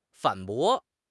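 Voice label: "反驳", Mandarin Chinese; HSK6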